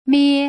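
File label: "mie", Putonghua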